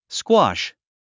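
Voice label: "squash", English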